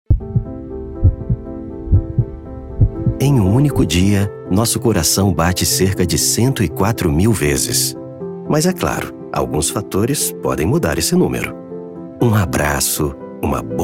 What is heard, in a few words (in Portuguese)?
SPOT RADIO EVANGELICO